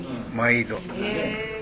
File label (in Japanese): "maeto", minami yamagata